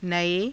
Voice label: "nae", Hindi; [形]新しい; नए